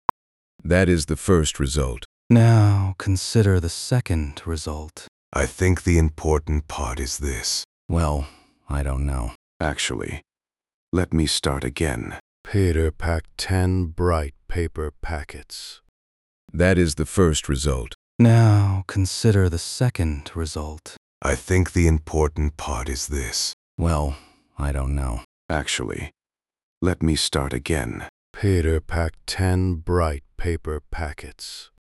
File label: speech male low slow